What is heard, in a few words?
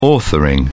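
"authoring", British English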